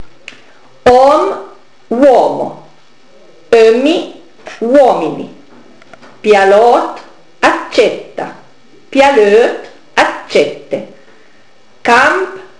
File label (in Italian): plurali, Peveragno